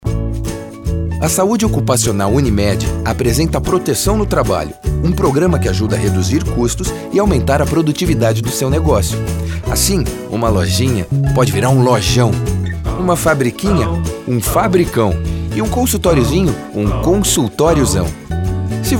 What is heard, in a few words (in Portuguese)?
spot; unimed